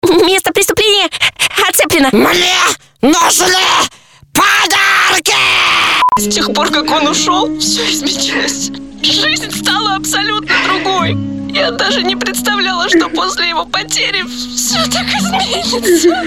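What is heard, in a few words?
igrovaya Russian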